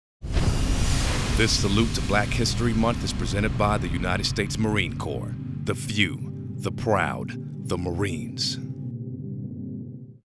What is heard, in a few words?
Voiceover; Marine Corp Sponsor